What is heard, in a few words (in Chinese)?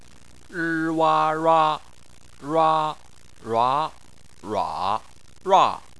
"rua", Chinese